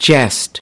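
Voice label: "jest", American English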